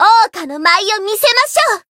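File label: Voice variable chant Japanese; suzette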